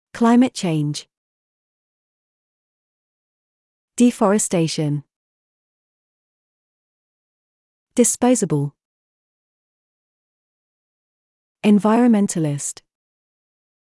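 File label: British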